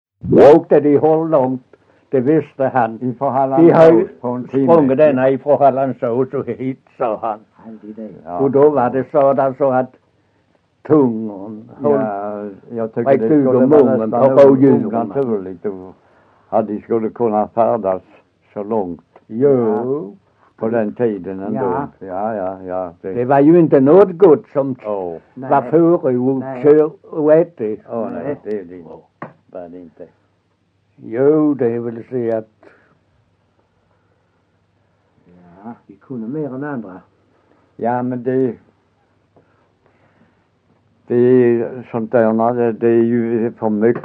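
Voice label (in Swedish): Össjö Skåne, Svenska sagor dialekter; Folkminnen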